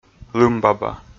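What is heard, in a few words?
"лымбаба", Komi, male; kpv audio dict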